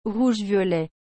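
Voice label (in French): "rouge violet", French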